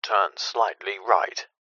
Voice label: technology sat nav male voice english turn slightly right